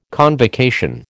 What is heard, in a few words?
"convocation", American